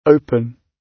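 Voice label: "open", British English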